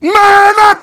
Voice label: heavy cheers

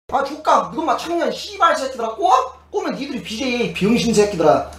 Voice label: scout jeers

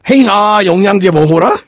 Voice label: jeers; voice packs

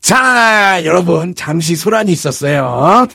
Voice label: negative vocalization; voice packs

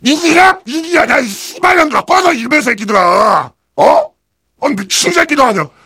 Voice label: heavy battlecry

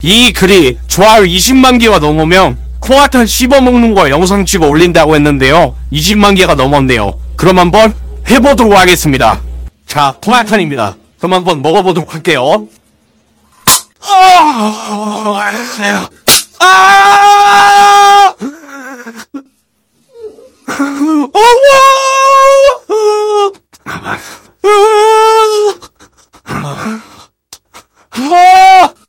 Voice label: scout cheers

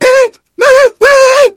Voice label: engineer cheers

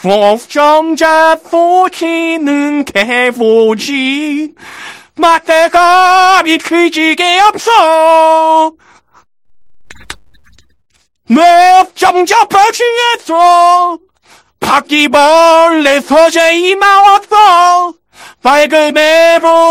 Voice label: scout cheers

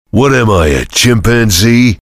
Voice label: soldier jeers

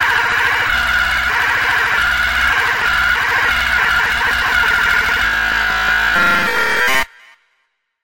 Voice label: rage soldier; missingteam

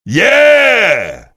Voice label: soldier cheers

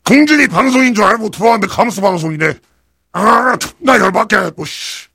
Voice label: heavy jeers

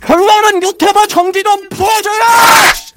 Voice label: scout battle cry

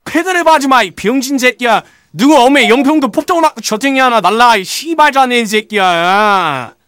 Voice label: scout negative vocalization

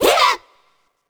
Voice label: stomp voice; chiabihamaru; cv project; invading executioner; zerosiki; slender

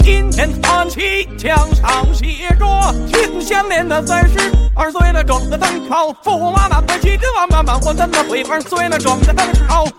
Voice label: scout cheers; cnscout

